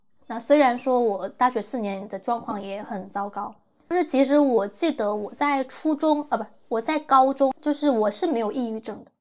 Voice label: chinese female